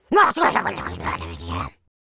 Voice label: angry, speech, French